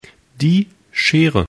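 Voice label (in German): "schere", German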